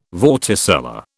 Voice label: "vorticella", British